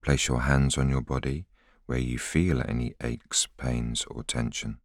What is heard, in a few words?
voiceover